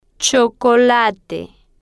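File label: "chocolate", Spanish, female, slow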